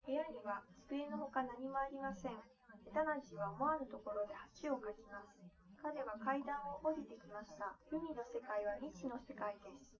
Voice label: female liverec; SiSEC11; underdetermined